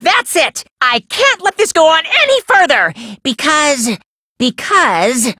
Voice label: English Demo Line; Danganronpa Trigger Happy Havoc; Monokuma